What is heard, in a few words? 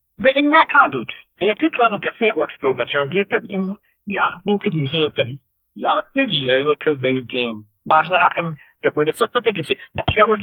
a woman with a canadian, accent